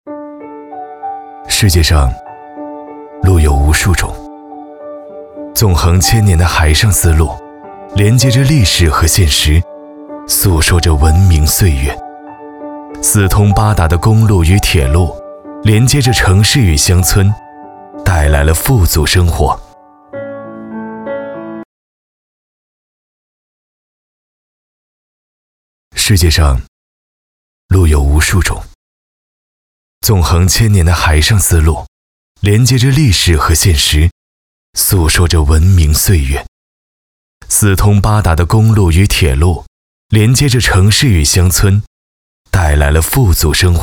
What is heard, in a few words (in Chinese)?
男 沉稳走心; 大道